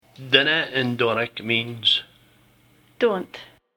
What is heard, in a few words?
dinna, Doric, north east; doric dictionary